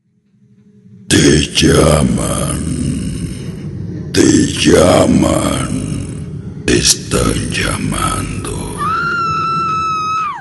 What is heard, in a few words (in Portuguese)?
chamada do além, voz macabra atende